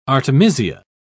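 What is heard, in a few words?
"artemisia", British English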